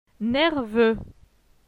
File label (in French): "nerveux", francais